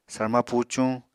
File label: "sarma pucuñ", apatani